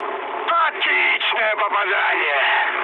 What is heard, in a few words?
bomb success, mood high, speech ru; War Thunder